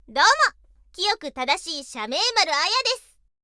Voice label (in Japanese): イメージボイス 自己紹介